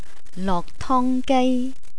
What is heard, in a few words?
"loktonggai", Cantonese